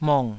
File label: "mong3", Taiwanese